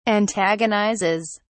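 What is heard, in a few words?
"antagonizes", British English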